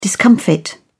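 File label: "discomfit", English, British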